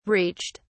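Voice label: "reached", British English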